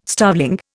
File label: "starveling", Indian